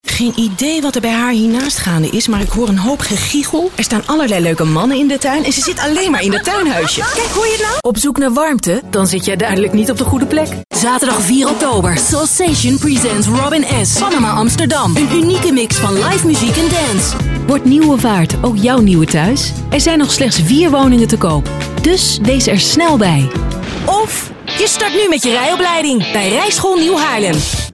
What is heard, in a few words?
Commercial